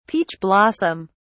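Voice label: "peach blossom", British English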